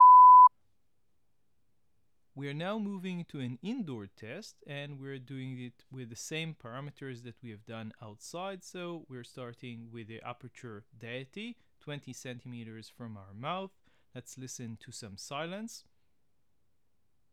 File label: indoors; deity